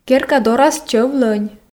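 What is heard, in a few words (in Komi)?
керка дорас чӧв-лонь, Komi, female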